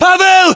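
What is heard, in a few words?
"pavel", battlechatter, Russian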